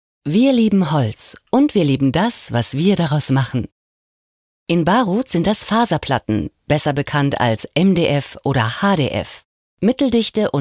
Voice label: Neutral

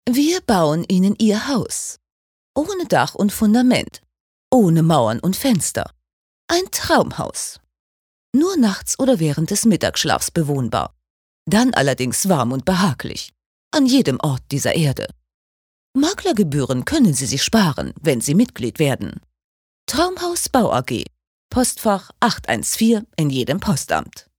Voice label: Werbung Cool

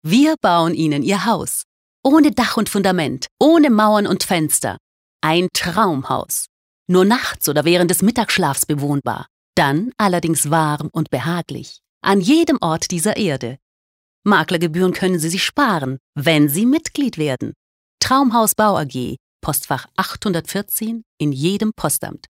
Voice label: Werbung, Freundlich

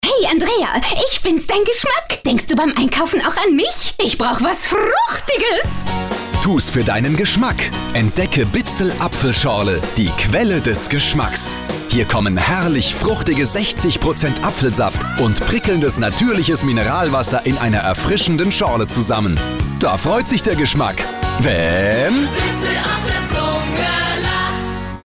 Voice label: Aufgeregt

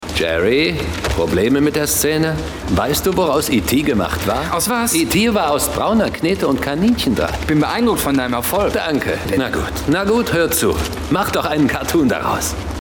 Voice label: Neutral

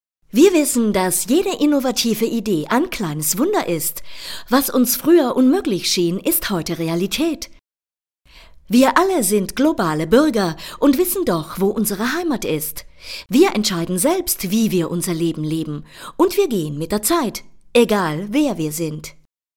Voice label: Werbung Dynamisch

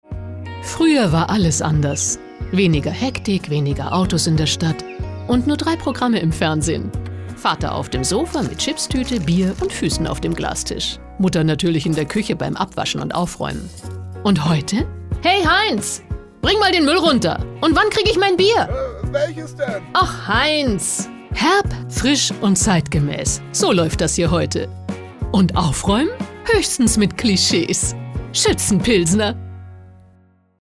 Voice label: Werbung Variabel

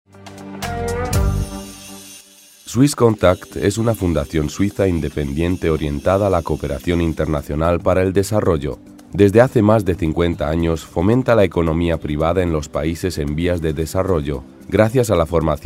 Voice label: ESP Neutral; Fremdtake

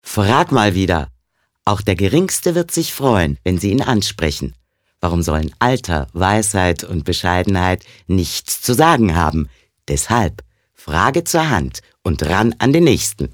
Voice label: Werbung Freundlich

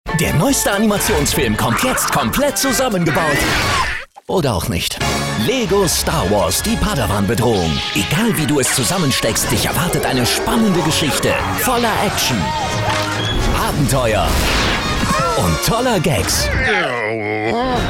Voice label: Dynamisch; Fremdtake

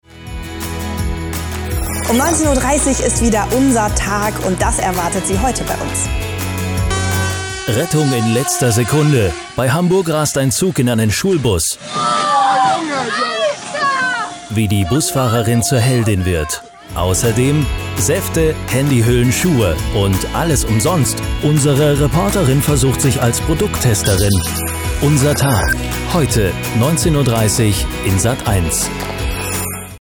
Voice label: Neutral

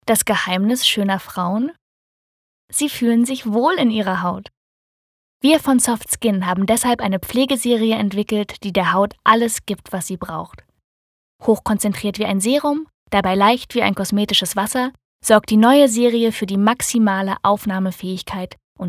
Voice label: Soft Skin Freundlich

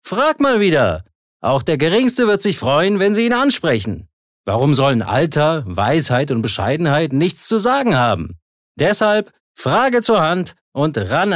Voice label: Werbung Dynamisch

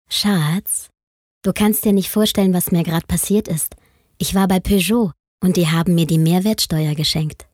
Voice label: Werbung Sanft

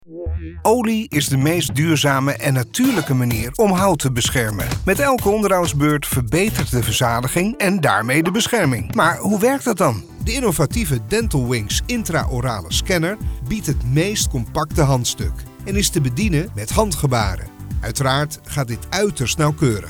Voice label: NL Fremdtake Freundlich

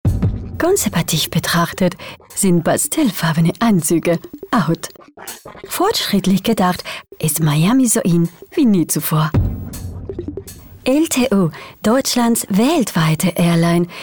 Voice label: Werbung Freundlich Akzent

